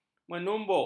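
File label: "menumbuk", Malay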